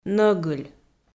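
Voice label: "нӧгыль", Komi, female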